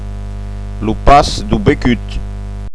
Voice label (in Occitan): "pas becut", Gascon